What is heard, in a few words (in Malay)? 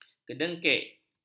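"kedengkik", Malay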